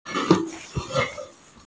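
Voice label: "juan1", Mandarin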